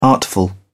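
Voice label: "artful", English, British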